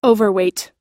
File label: "overweight", US